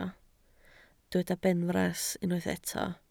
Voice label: "benfras", Welsh